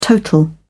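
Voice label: "total", English, British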